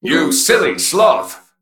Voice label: Male Mech Attack